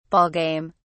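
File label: "ball game", British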